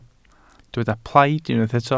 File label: "plaid", Welsh